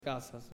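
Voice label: "Casas", Spanish, male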